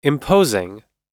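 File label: "imposing", US English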